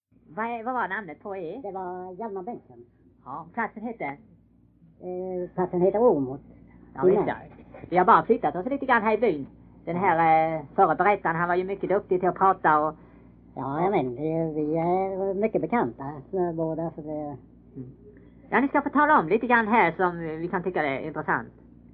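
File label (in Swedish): Kinnared Halland, dialekter, svenska sagor; Folkminnen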